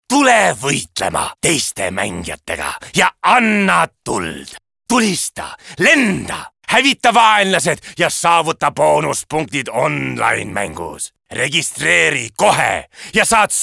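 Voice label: Estonian male Character, vo examples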